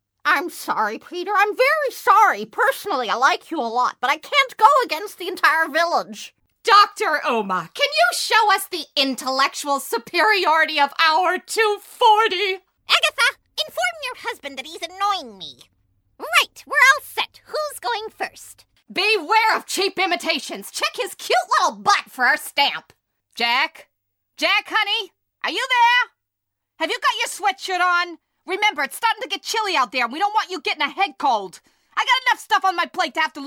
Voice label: older female animation